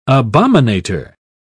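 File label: "abominator", British English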